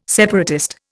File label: "separatist", Indian